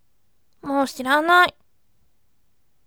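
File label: "moushiranai", bright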